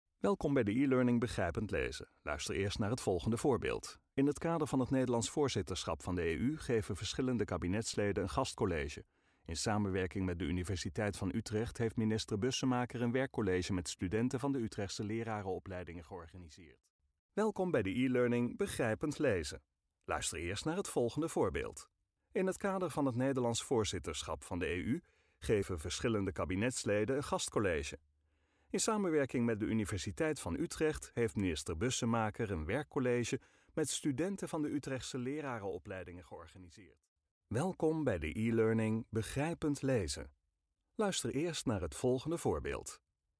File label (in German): Niederländisch, Männlich